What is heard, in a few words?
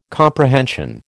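"comprehension", American English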